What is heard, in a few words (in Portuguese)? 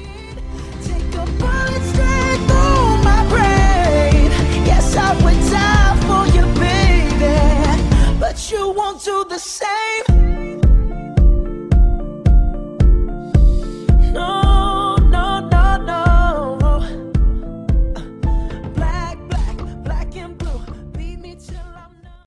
PÁSCOA F; PÁSCOA NEUTRA; Datas importantes; Fem